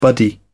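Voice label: "buddy", English, British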